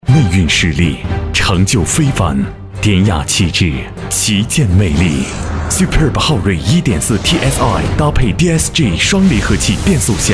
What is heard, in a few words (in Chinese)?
男, 广告, 年轻, 深沉内敛; 昊锐